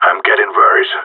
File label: Scared emote